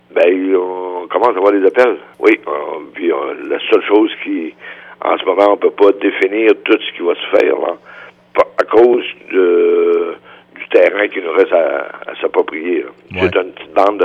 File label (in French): commercial; fin des travaux de la 85 un avenir radieux pour Saint-Honoré